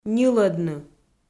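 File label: "ньылӧдны", Komi, female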